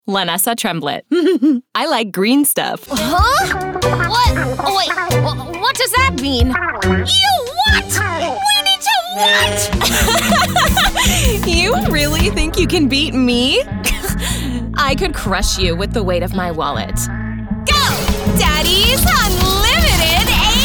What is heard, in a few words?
Animation